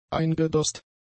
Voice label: "eingedost", German; adjectives